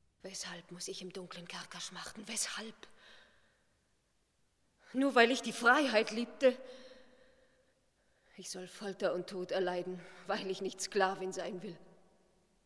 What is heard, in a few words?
Monolog; Zaide; Mathis, Schreier; Weshalb muss ich im dunklen Kerker schmachten